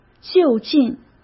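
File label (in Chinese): "就近", Mandarin; chinese-flashcards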